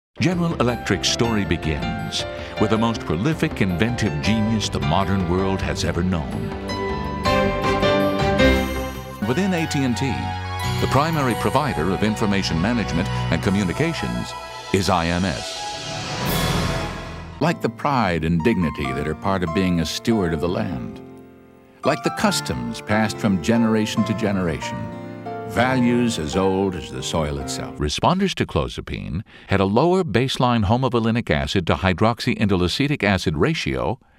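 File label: narration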